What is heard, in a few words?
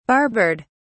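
"barbered", British English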